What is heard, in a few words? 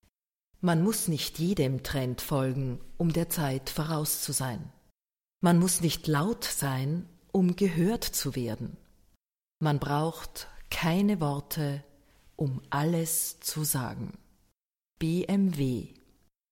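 german Austrian female narration; voice demos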